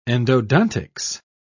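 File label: "endodontics", British English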